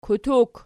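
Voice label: "kutuk", nominative, Archi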